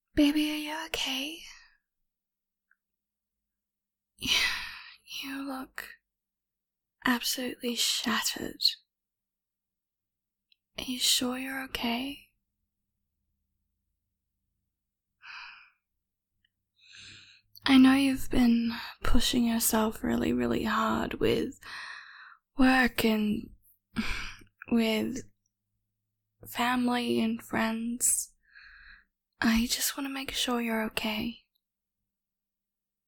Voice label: Comfort Kinda Sleep Aid ASMR Elements Whispers Shh Noises; F4A; Take It Easy; GFE; Self Care; Hair Playing; Relax